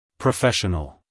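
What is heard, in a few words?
"professional", American English, male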